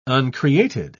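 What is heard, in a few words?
"uncreated", English word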